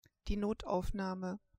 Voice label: "notaufnahme", german